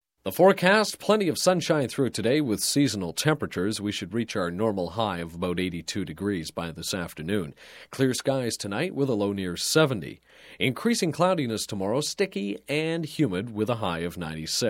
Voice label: Broadcast, Weather Generic Summer Forecast; Dry; Radio; Sound Ideas; Series 2000

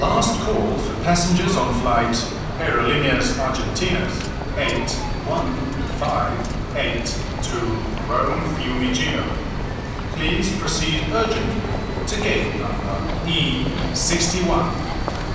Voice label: airport speaker english; best service Blue Box

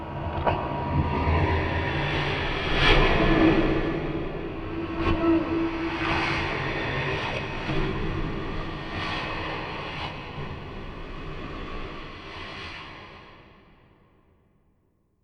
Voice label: Backward Whispers; Inquisitor 2

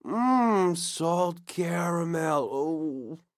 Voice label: icecream reaction; donnie; Blind Drive

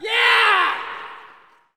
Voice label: Hockey Male "Yeah"; Hockey Vocal Sounds

